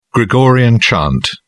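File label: "gregorian chant", British English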